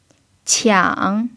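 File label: "qiang3", Chinese; pinyin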